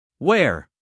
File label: "ware", us male; everyone-can-use-english